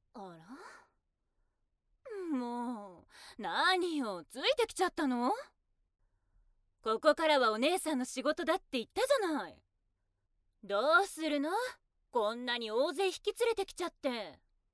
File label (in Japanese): アニメ